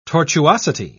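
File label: "tortuosity", English, British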